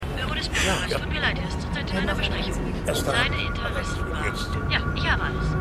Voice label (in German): Telefonstimme; The Wire